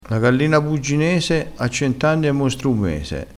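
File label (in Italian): "bugginese", dialettale crespinese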